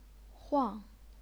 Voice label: "huang4", Chinese pinyin